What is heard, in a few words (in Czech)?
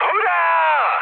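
Czech player killed enemy, mood high; War Thunder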